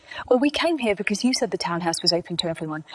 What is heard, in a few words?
Voice Acting, es